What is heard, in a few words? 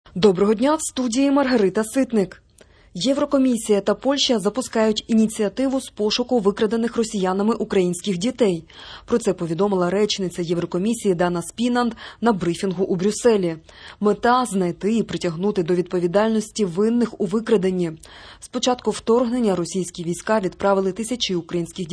Ukrainian Evening News